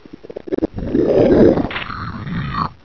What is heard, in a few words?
friendly idle